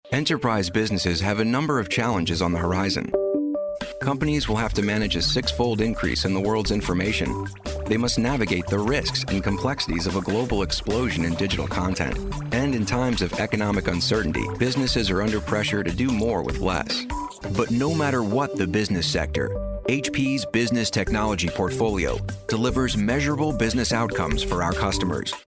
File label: US narration